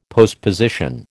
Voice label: "postposition", American